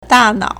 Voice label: "da4nao3", Chinese

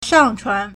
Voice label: "shang4chuan2", Chinese

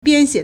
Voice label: "bian1xie3", Chinese

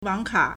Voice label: "wang3ka3", Chinese

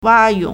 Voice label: "wa1yong3", Chinese

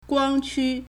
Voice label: "guang1qu1", Chinese

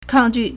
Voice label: "kang4ju4", Chinese

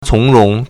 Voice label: "cong1rong2", Chinese

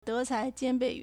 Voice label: "de2 cai2 jian1 bei4", Chinese

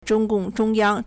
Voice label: "zhong1 gong4 zhong1 yang", Chinese

